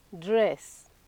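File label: "dress", Nigerian Pidgin, female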